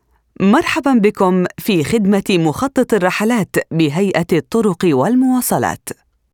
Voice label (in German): arabisch telefon; sprecher